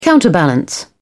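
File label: "counterbalance", British English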